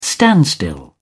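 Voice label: "standstill", British English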